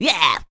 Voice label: "yeah" cartoon, voice